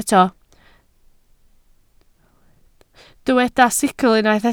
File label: "sicl", Welsh